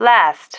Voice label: "last", female